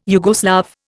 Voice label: "Yugoslav", Indian